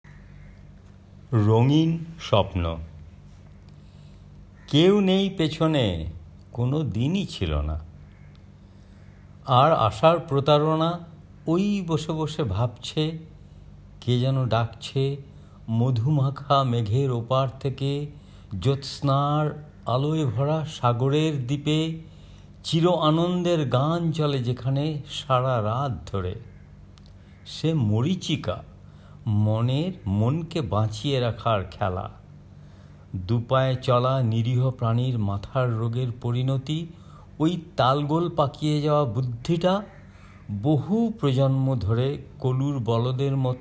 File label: Bengali reciting; Corona Series; handmade kolkata